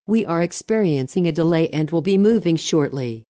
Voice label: short delay; Siemens Audio